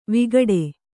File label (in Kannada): "ವಿಕಟ", kannada